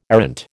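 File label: "errant", American English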